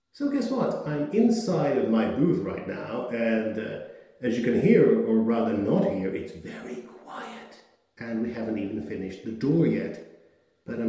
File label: Untreated Booth; Nethervoice